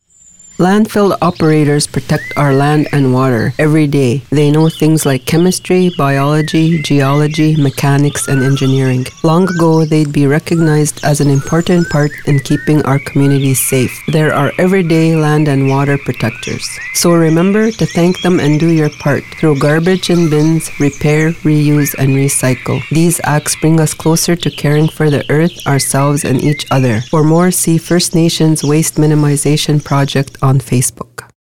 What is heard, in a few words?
Radio ad